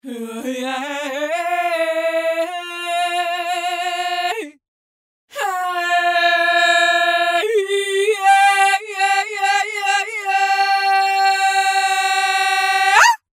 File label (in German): Varispeed +20 ms (Maximalstellung) und LFO-Range +/- 20 ms; Waves Abbey Road Studios Reel ADT